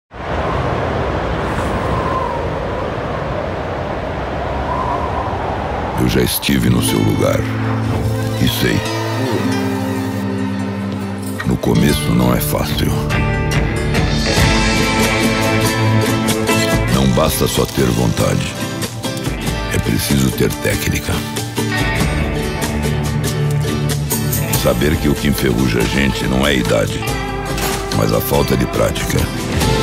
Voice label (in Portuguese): COMERCIAL O MESTRE CHURRASQUEIRO LOCUÇÃO